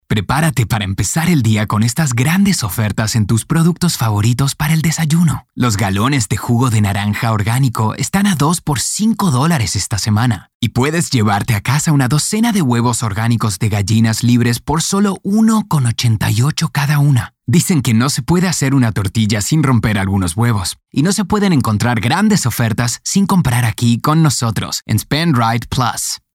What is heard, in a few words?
Casual Conversational